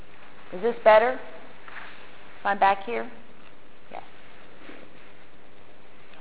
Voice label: male voice